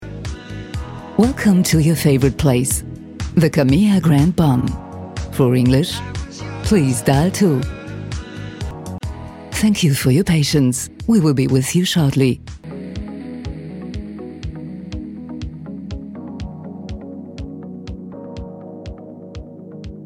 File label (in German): Telefon Englisch